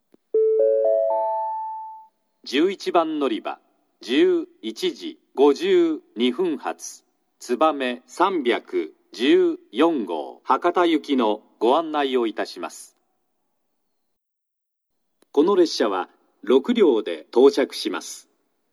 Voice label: 11番のりば案内放送（つばめ314号 博多行き）※自由席が1号車、2号車のみ・15分遅れ, 久留米（新幹線ホーム）

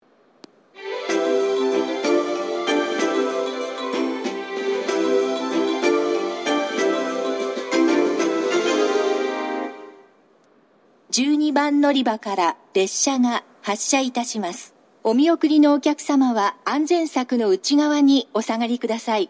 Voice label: 嬉野温泉, 12番のりば発車メロディ＋発車予告放送

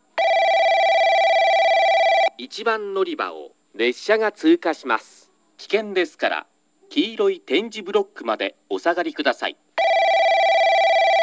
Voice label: 1番のりば通過放送

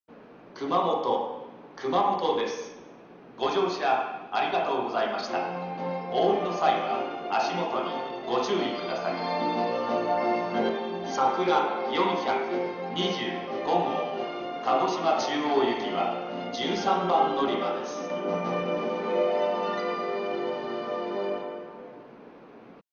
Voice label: 到着放送＋乗り換え放送, 新幹線ホーム; 到着放送＋乗り換え放送（さくら425号鹿児島中央行き）; kumamoto

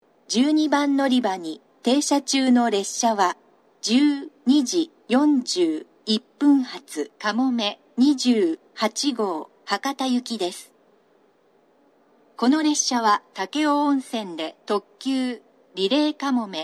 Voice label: 長崎 西九州新幹線ホーム 自動放送, 12番のりば停車中放送; かもめ28号 博多行き）※停車駅が鳥栖まで

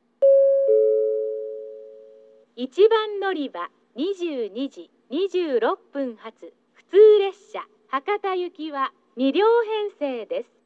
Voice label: 1番のりば案内放送; 普通 博多行き; takeo onsen

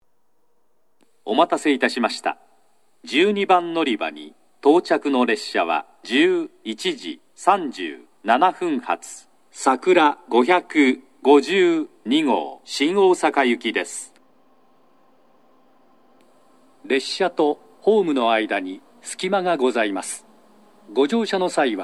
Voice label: 新水俣, 12番のりば接近放送; さくら552号 新大阪行き; ホーム無人化後; shin minamata

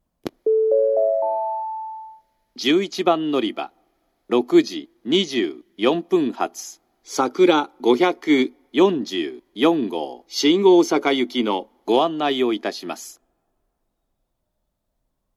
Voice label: 11番のりば案内放送, 新幹線ホーム; 筑後船小屋; 11番のりば案内放送（さくら544号 新大阪行き）; ※新下関停車

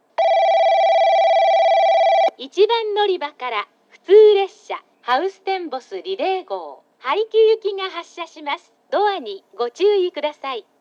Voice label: 1番のりば発車放送; ハウステンボス; 1番のりば発車放送（ハウステンボスリレー号 早岐行き）

